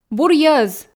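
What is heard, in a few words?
"бур йӧз", Komi, female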